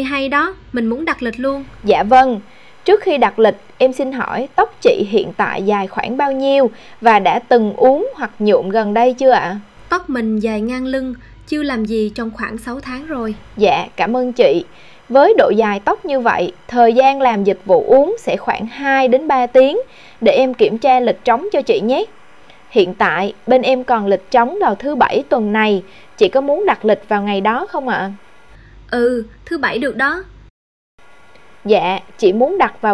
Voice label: hair salon call